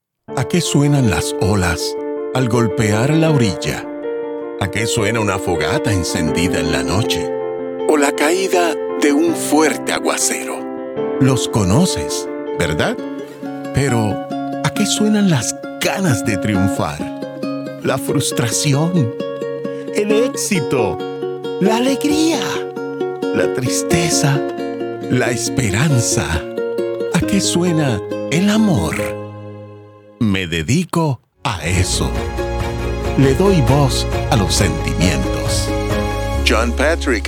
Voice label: spanish latin american commercial, passionate

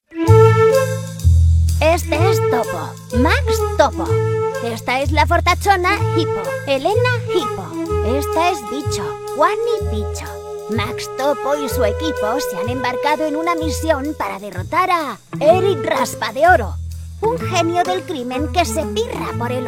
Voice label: spanish animation

